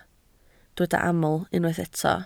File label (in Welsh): "aml", Welsh